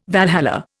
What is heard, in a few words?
"Valhalla", Indian